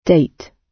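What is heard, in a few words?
"date", British English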